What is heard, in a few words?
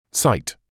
"sight", English